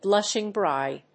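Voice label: "blushing bride", English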